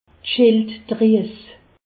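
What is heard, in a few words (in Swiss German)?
"schilddries", Alsace, Haut Rhin; medecine; thyroïde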